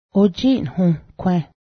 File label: ants, Cayuga; ojinhǫ́hgwęh